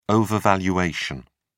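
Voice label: "overvaluation", English, British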